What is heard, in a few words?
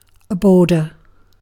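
"border", British English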